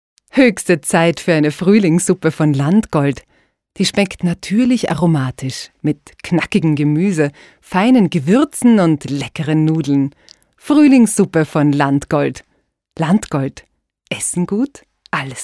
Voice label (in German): Werbung Essen